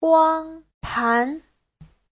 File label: "guang1 pan2", chinese